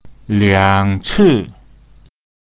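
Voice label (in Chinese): "liang ci", Mandarin Chinese pinyin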